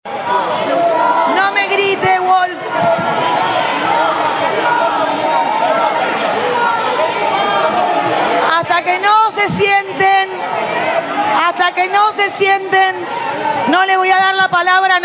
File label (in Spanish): GRITOS; JXC SUSPENDIÓ A LOS GRITOS LA SESIÓN PARA CREAR 8 UNIVERSIDADES; Gritos a Moreau